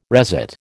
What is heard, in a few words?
"resit", American